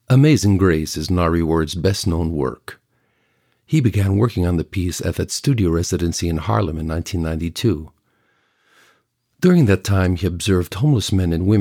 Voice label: Institucional Inglês

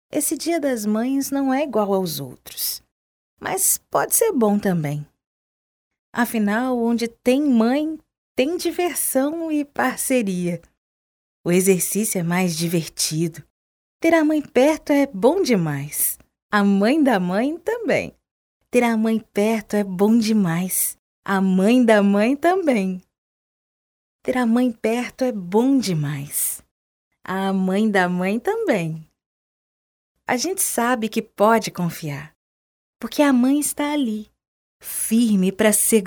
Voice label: Emocional

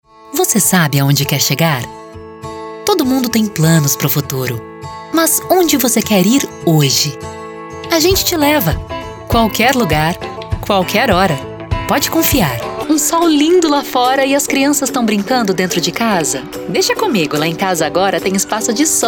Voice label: Repertório Português

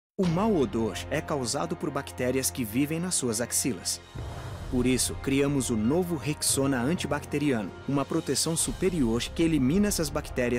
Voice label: Grave; Rexona

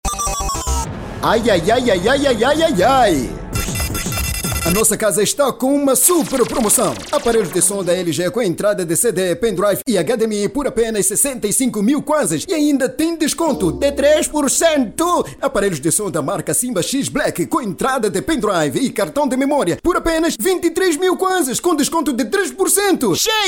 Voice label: SPOT DE RÁDIO; NOSSA CASA

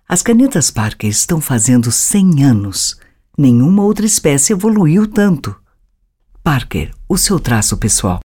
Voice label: CLÁSSICA; CANETAS PARKER

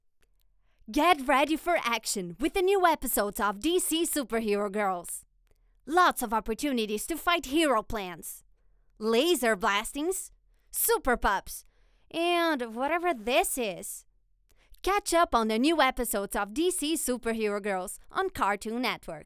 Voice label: Loc em Ingles descolado jovem

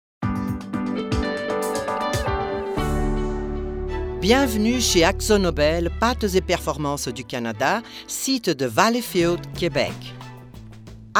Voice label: locucao frances

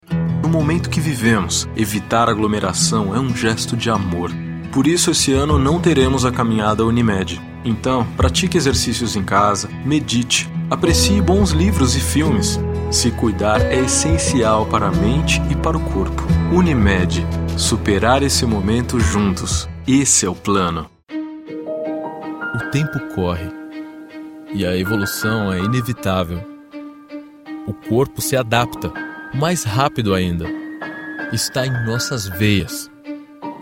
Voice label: reel emocional

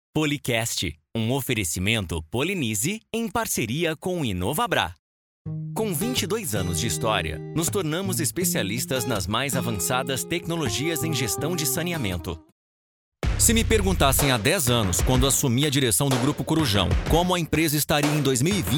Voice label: DEMO REEL INSTITUCIONAIS

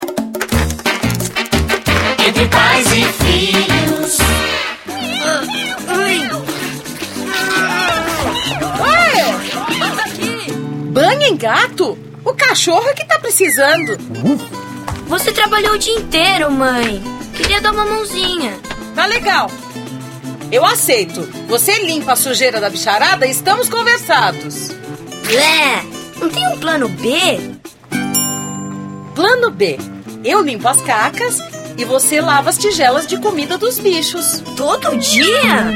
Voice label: Caricata

